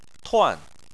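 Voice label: "tuan", Chinese, fourth tone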